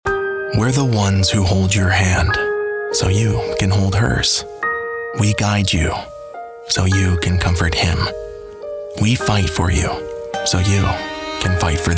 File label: Warm Anthemic Empathetic